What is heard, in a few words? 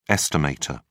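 "estimator", British English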